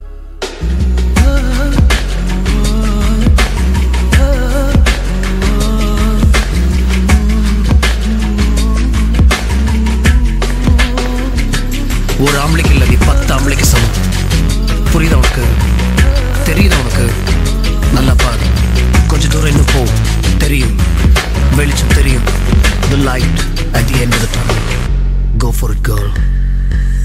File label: dialogue with humming; tamil ringtones; kolamavu kokila; gautham menon